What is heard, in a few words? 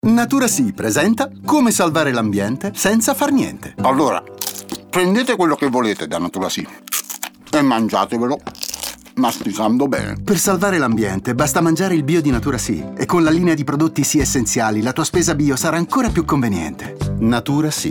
Spot; NaturaSi